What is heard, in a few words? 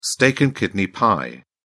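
"steak and kidney pie", British English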